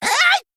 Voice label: Male Kobold Attack